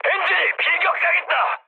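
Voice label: Korean damaged engine mood high; War Thunder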